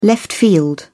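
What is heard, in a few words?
"left field", British English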